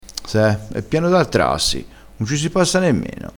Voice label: "attrassi", crespinese

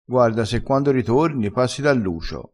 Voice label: "e tanto e la via", vocabolario dialettale

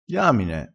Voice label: "diamine", dialettale crespinese

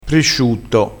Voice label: "presciutto", crespinese

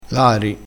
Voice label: "lari", crespinese

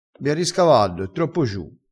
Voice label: "riscava", crespinese

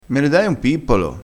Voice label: "pippolo", crespinese

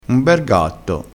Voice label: "ber", crespinese

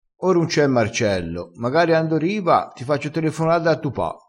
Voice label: "magari", crespinese

